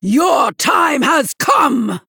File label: Female Night Elf Attack